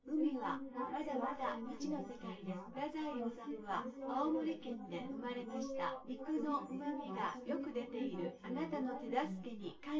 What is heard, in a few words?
female synth conv 250 ms 5 cm